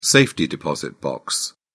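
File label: "safety deposit box", English, British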